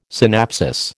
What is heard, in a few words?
"synapsis", American